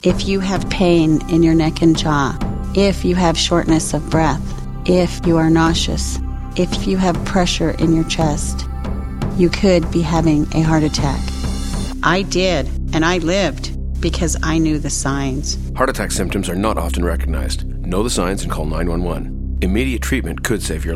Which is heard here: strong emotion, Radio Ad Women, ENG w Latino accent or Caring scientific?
Radio Ad Women